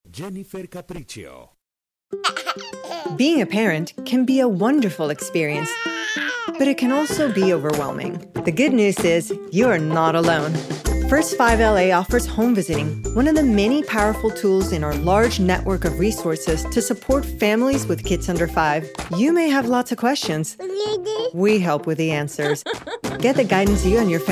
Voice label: English VO

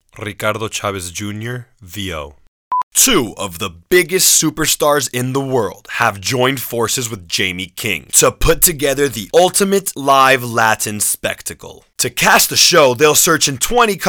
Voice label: Male Promo

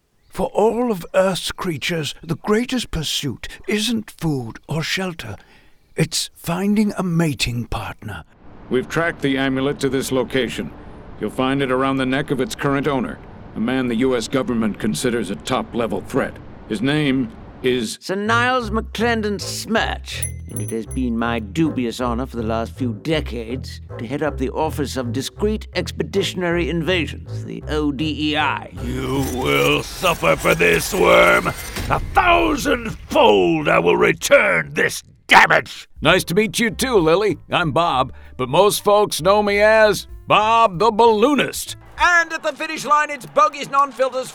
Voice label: Male Animation

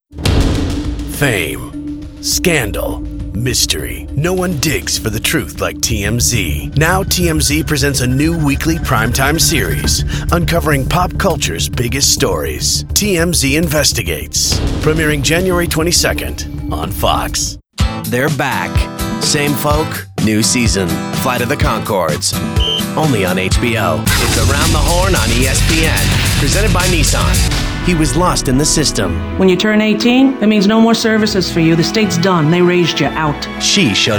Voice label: Promo

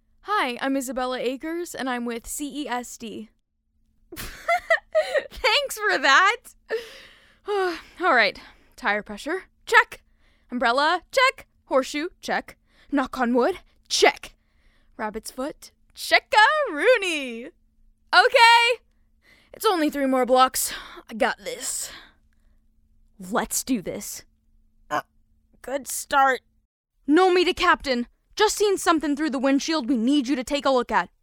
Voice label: YOUTH

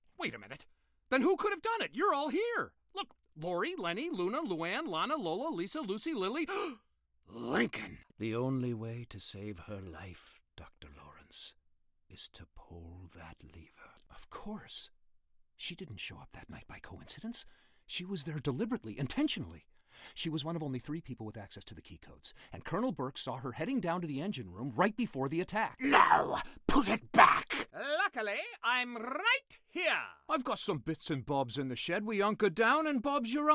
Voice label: Animation

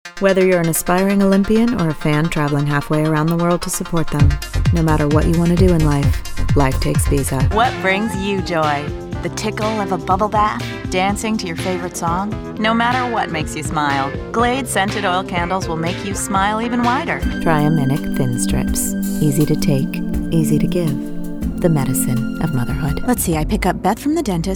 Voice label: Commercial

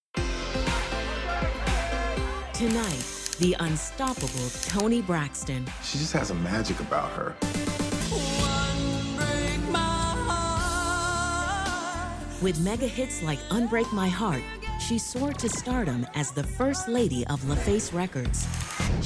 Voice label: Narration

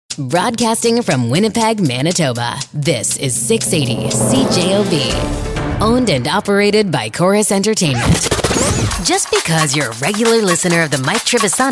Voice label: News Talk

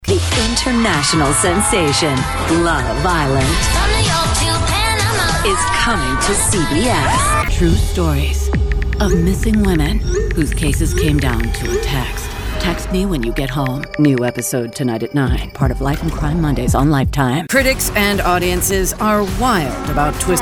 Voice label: PROMO

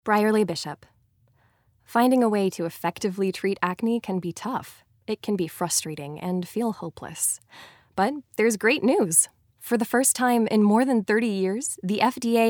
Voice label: Female Commercial

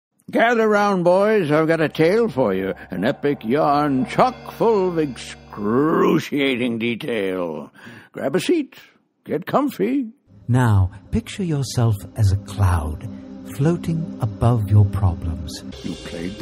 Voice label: Animation

